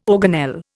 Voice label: "organelle", Indian